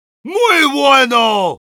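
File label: positive vocalization, Spanish; Heavy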